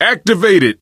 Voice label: misc directional, coach, survivor voice